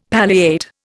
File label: "palliate", Indian; Dictionary